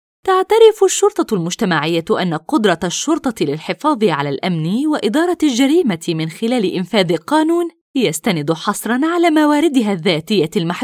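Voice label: Arabic Elearning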